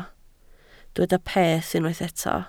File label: "peth", Welsh